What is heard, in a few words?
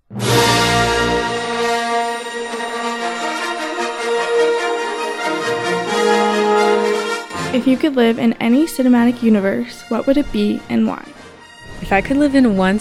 vox pop; karloff